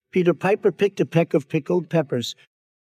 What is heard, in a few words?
Pipter Piper Picked a peck of, Sarcastic; Donald Trump